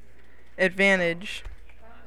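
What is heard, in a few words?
"advantage", American, female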